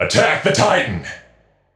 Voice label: vox attack m; smite; heimdallr